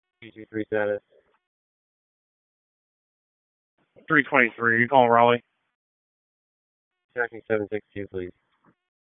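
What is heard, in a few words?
Raleigh Police Northeast District Dispatch, p25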